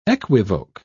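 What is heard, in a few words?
"equivoque", British English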